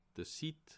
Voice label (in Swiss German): "sitt", alsace